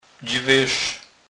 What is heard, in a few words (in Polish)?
"dzwerz", kurpiow